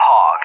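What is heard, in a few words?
"Hawg", English, USA; CallSign; DCS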